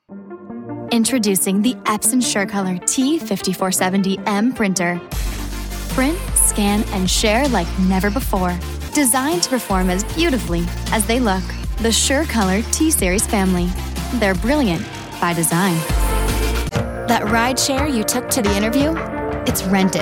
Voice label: Commercial